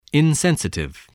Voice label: "insensitive", voice spelling

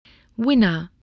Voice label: "winner", voice, english

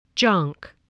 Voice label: "junk", English

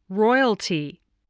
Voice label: "royalty", English spelling

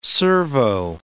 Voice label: "servo", English

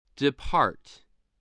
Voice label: "depart", English

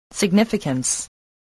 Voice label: "significance", spelling

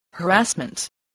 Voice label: "harassment", English, spelling

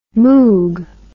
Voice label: "moog", English

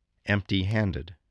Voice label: "empty-handed", spelling

